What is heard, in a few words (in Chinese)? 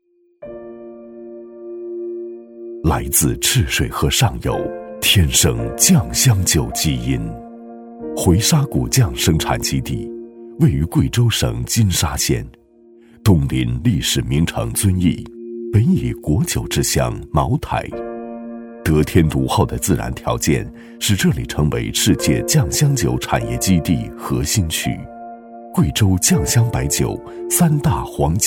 宣传片样低调内敛深厚磁性; 回沙古酱酒